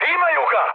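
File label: Serbian aircraft destroyed mood high; War Thunder; speech srb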